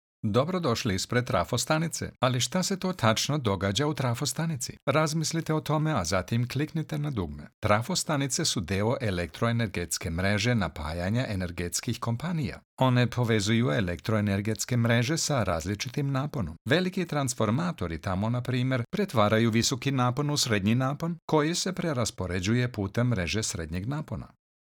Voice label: Serbian technik

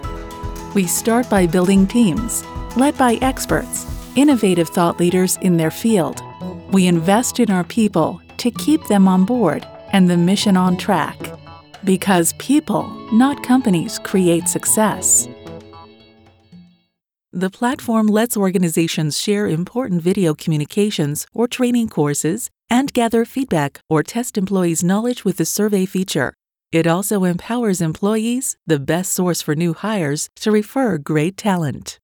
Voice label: CORPORATE AND BUSINESS

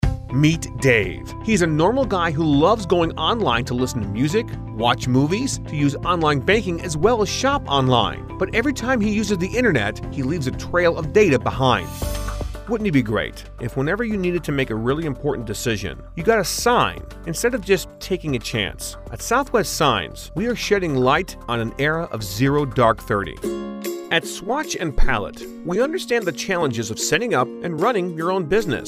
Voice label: Explainer Video

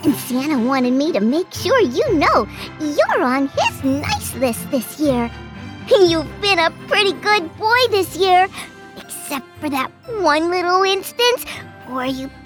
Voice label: Christmas Elf

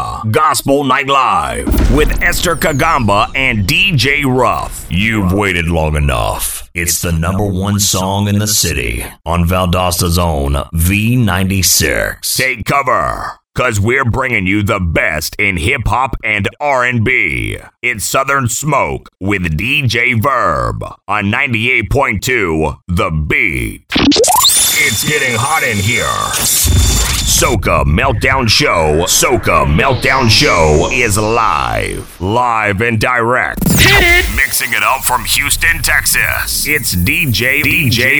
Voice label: RADIO IMAGING

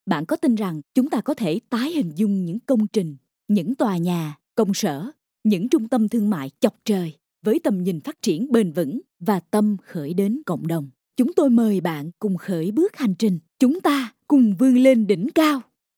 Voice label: Vietnamese Southern

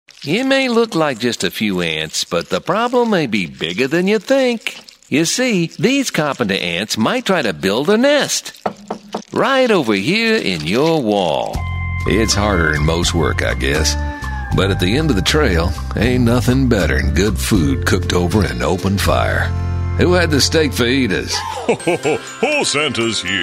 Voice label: Character

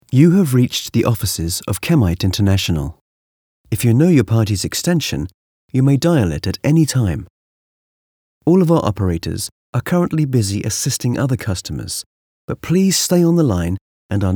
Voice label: telephony

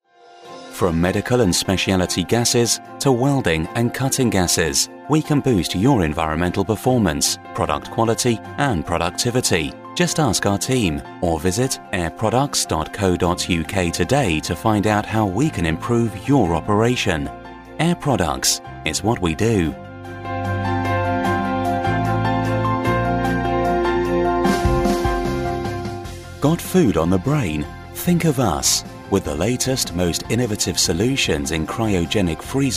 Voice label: On Hold

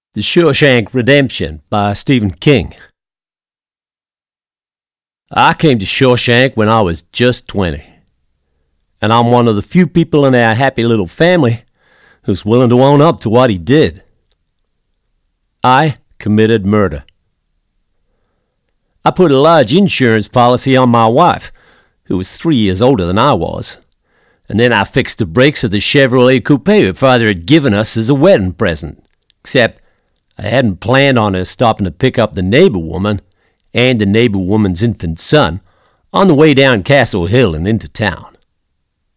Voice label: Audio Book

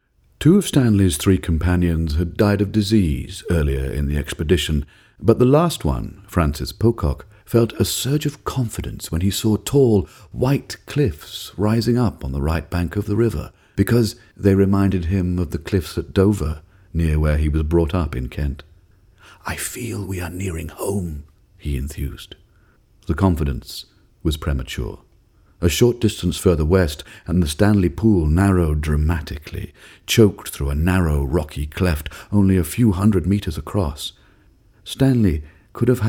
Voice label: Narrative

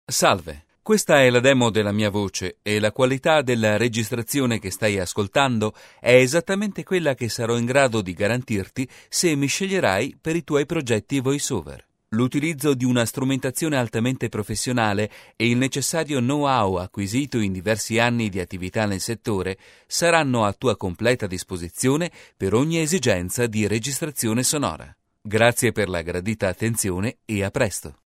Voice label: quality studio

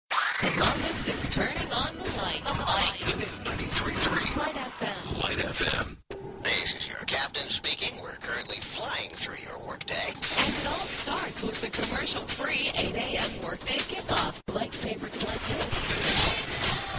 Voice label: Imaging Adult Contemporary

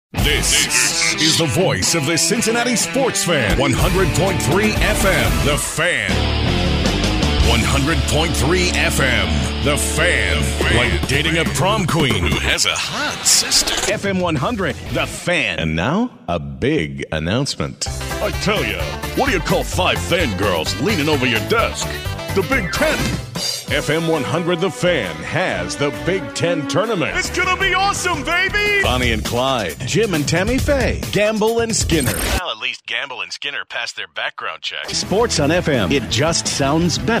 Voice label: radio imaging

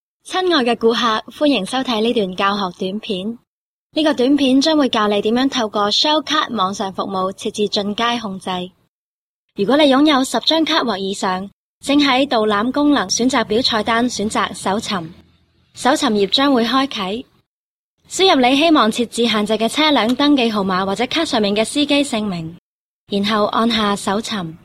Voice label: Cantonese explainer

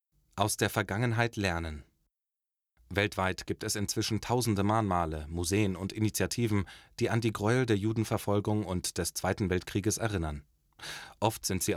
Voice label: German; Anne Frank

